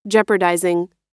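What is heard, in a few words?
"jeopardizing", American English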